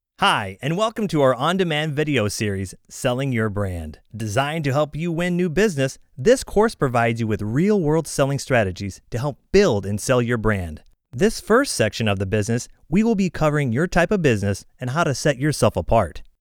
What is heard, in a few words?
e-learning